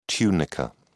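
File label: "tunica", British English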